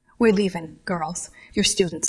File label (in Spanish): Voice Acting es